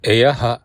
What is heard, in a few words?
hello, bonggi; Ei aha